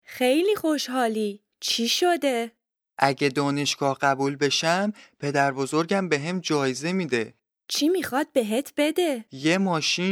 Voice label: Dialogue lesson Farsi